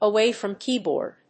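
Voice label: "Away from Keyboard", English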